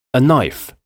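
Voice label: "a knife", English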